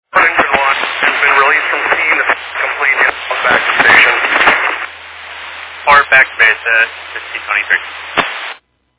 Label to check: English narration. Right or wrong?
Wrong